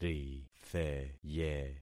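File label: "ritheye", concatenated syllables; Affixing experiment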